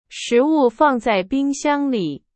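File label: Chinese; hsk4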